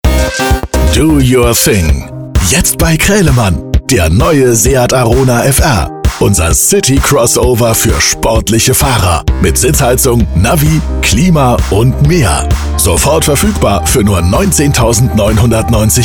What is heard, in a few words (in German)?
Funkspot; Seat Arona